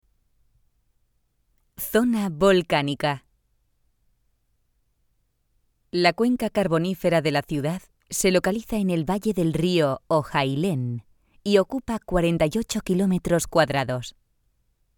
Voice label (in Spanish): audioguia; audioguia museo de la mineria; esp